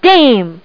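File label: "Damn", spoken; HyperJack 1.01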